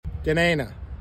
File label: Denaina Upper Stony River, pronunciation; (de-NAY-nah); Dena’ina Center